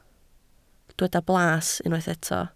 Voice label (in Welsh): "blas", Welsh